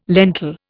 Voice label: "lentil", Indian